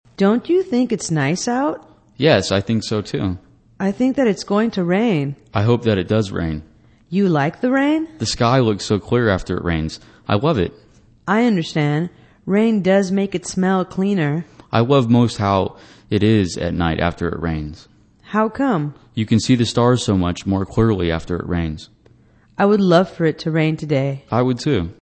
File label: english conversation weather